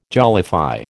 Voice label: "jollify", American